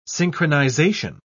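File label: "synchronisation", English, British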